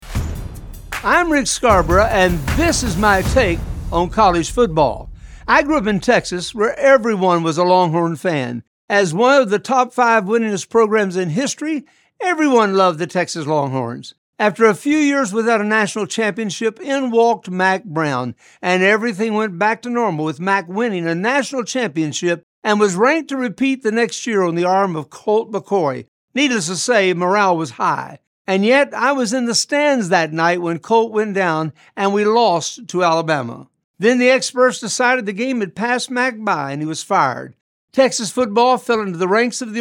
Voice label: Radio Spot; Texas Football